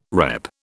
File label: "repp", British